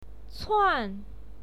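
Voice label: "cuan", Mandarin Chinese, fourth tone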